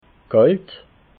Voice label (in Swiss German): "kalt", alsace